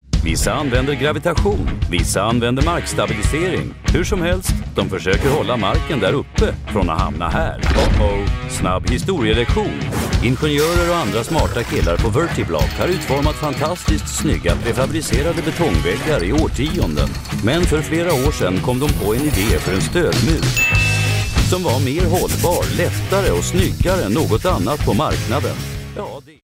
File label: in Swedish Fast paced informative movie